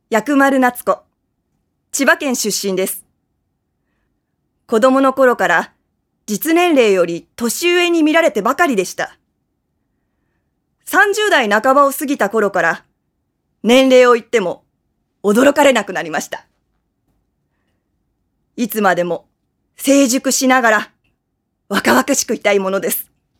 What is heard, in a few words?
female voice freetalk